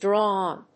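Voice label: "draw on", English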